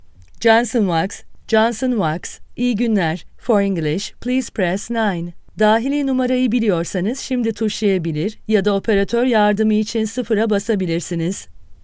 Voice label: Turkish F IVR; voice-over